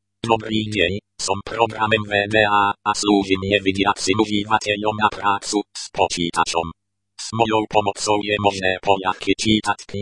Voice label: espeak